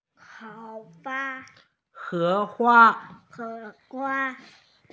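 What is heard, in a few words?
"he2hua1", Mandarin Chinese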